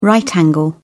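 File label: "right angle", British English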